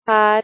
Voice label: Female prototype 'had'